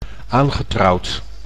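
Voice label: "aangetrouwd", Dutch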